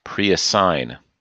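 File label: "preassign", us English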